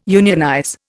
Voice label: "unionize", Indian